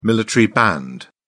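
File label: "military band", British English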